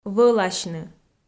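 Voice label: "вӧласьны", Komi, female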